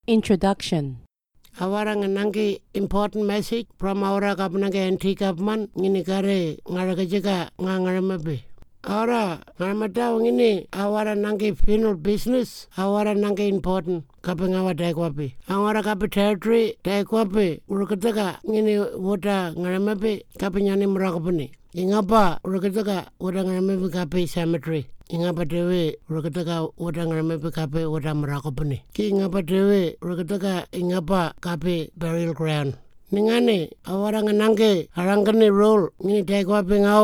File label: TIWI; Burial Notification; modern tiwi; burial and cremation; information recorded in 18 aboriginal languages; Burial and Cremation Act 2022